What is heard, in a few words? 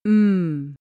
m, ipa consonants; ipa pronunciation